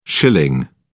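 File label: "schilling", British English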